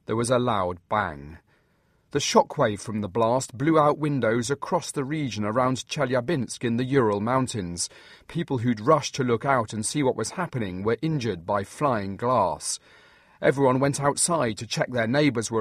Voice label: meteor hits russia report